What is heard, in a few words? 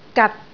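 "gat6", Cantonese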